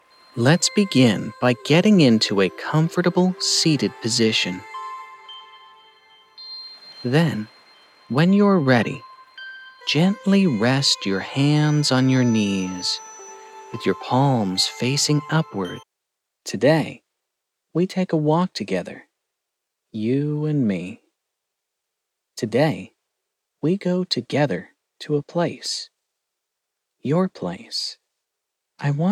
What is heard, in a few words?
meditation